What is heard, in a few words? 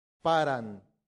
"parant", latin; santillana